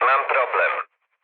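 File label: aircraft damaged, mood low, Polish speech; War Thunder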